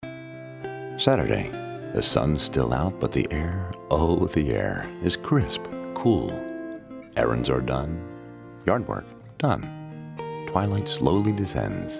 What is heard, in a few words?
Radio Spot Whisper then Exhilerated; Football